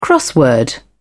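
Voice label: "crossword", British English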